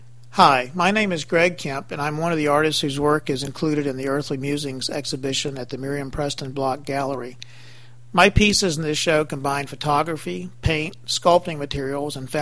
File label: Phone FX